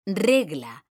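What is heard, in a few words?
"regla", Spanish, female; mekanta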